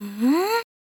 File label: "nn", girl